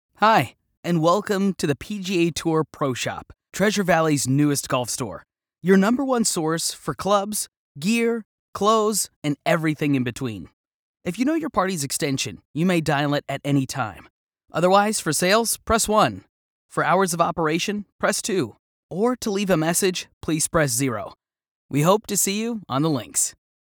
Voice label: english american ivr; His natural voice is clean, clear, and youthful. His delivery is conversational and upbeat